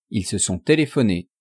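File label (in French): ils se sont telephone, French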